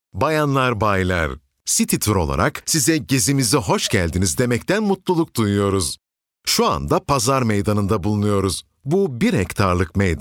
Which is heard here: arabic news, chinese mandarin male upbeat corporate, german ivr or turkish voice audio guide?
turkish voice audio guide